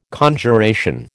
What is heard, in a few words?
"Conjuration", American